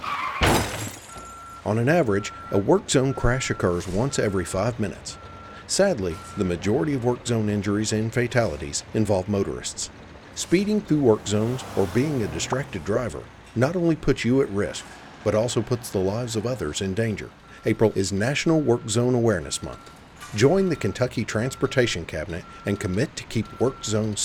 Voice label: Radio Spot